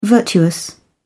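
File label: "virtuous", English, British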